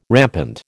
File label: "rampant", American